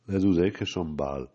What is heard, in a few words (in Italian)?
frasi in bergamasco